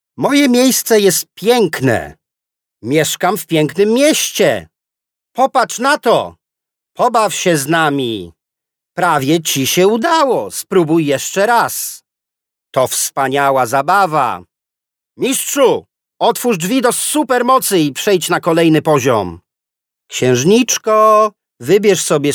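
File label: Polish male children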